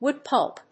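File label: "wood pulp", English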